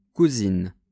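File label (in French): "cousine", French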